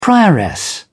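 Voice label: "prioress", British English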